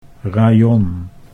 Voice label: "rayon", Dutch

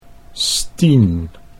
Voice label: "Stien" Dutch

